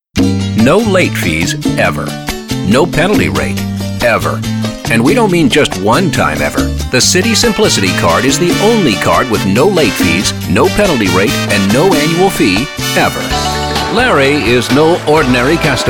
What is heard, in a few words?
Announcer